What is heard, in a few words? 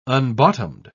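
"unbottomed", British English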